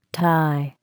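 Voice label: "tie", English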